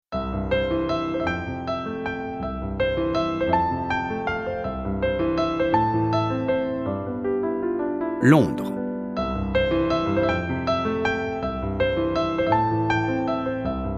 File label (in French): narration audiobook